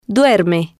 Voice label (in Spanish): duerme, Spanish